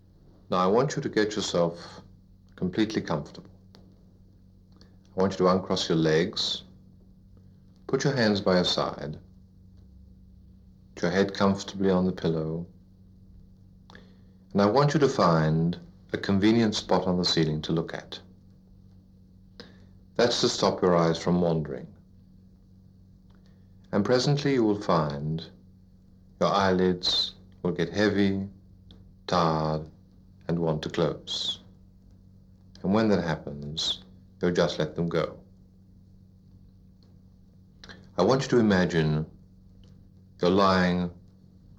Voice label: Typical hypnotic induction; Graves Medical Audiovisual Library